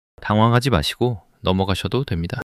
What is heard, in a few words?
neutral